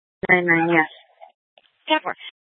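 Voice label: Scottsdale Police Department South Dispatch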